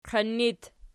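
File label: "qiin" locative, Archi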